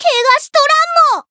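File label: healed, Japanese; otoha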